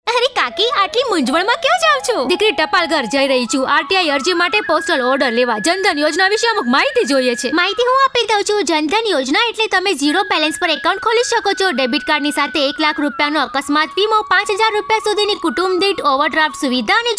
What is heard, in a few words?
Gujarati